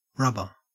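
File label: "rubber", Australian English; pronunciations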